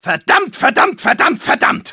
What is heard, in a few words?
negative vocalization, de